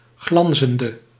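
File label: "glanzende", Dutch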